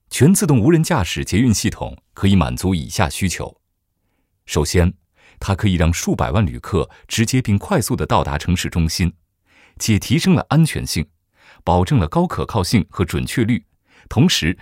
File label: chinese mandarin e-learning